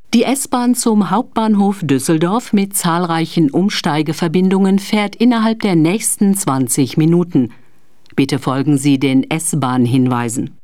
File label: S BAHN, Chimes and Announcements; Bosch